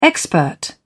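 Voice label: "expert", English, British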